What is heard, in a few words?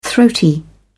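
"throaty", British English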